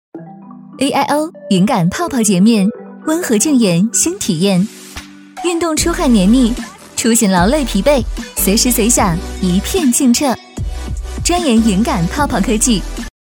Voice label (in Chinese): 女 广告; aio云感泡泡洁面